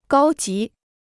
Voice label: "高级", Chinese

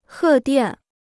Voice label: "贺电", Chinese

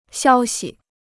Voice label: "消息", Chinese

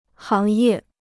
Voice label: "行业", Chinese

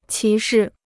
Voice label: "歧视", Chinese

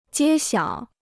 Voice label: "揭晓", Chinese

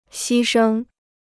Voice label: "牺牲", Chinese

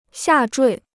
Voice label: "下坠", Chinese

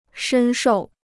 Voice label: "深受", Chinese

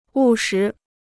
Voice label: "务实", Chinese

